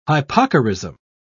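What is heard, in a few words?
"hypocorism", British English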